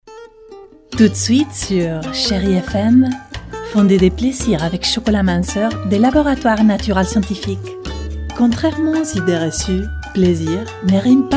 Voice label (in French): Francais dynamique conversationnel complice; Narration